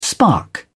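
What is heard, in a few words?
"spark", British English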